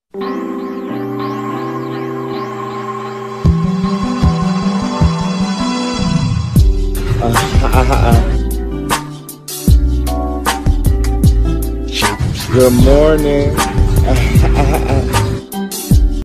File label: ahaha good morning; meloboom